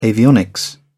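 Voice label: "avionics", British English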